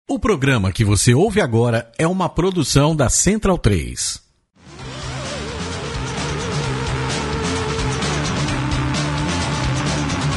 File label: Radio Show; Thunder Radio Show; Jacare Banguela